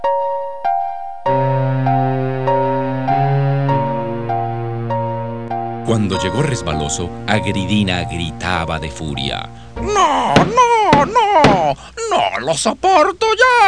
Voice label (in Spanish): NARRACI, ESPANOL, VOZ; Dioses Mayas; LENTES; CUENTO